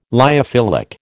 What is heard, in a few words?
"lyophilic", American